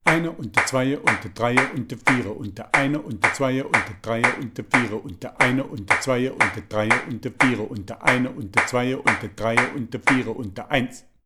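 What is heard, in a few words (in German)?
Count 16tel Tempo 90 clap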